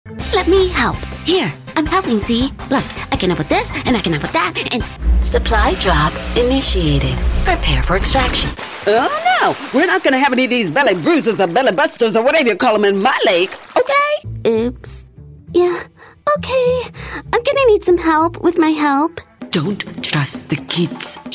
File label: Animation